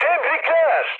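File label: player killed enemy, mood high, Turkish; speech; War Thunder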